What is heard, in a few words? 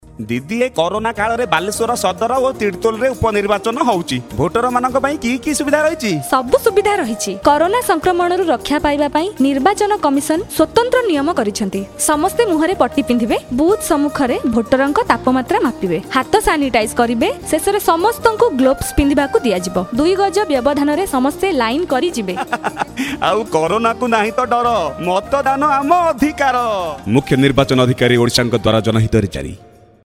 Radio Jingle; Guideline for Voter during COVID19